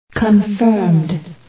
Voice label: confirmed, dutch